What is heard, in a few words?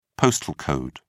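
"postal code", British English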